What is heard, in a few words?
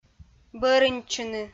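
"бӧрыньтчыны", Komi-Zyrian, female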